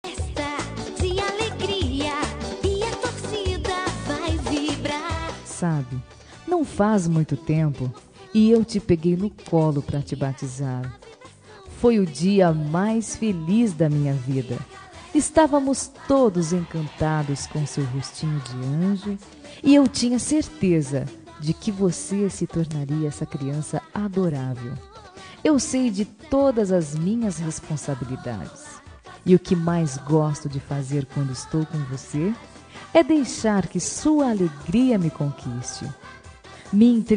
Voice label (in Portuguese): afilhado fem